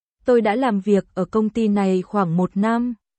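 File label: Vietnamese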